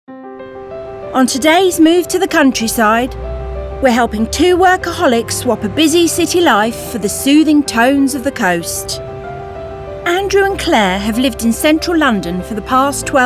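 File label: Narrative, female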